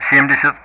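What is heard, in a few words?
Speech Sound Russian; 70; Numbers; DCS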